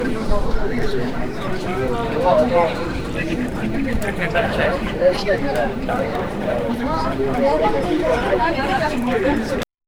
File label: people talking in a calm; field